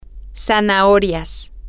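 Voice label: carrots, Spanish, female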